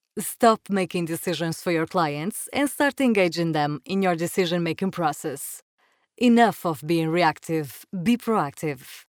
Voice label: female portuguese voice over english, portuguese portugal